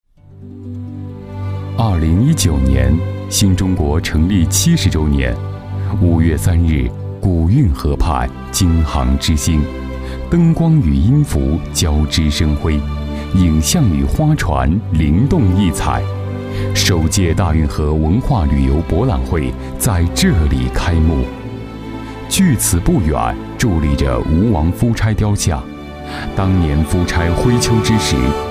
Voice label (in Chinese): 男 景点地区解说